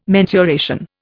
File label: "mensuration", Indian